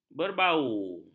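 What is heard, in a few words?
"berbau", Malay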